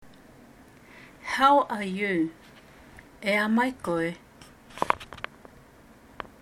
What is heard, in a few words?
How are you, Tokelau Language; Tokelau Language Week; 2022 Pacific Language Weeks